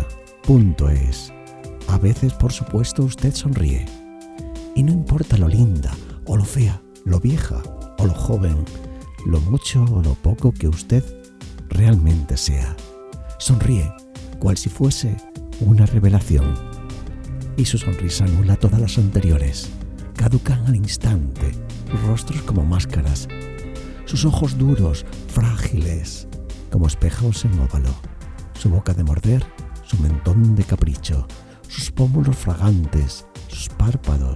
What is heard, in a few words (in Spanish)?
arco iris poema romantico, poemas recitados; mario benedetti